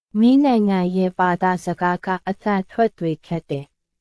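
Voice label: Myanmar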